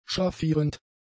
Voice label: "schraffierend", German; adjectives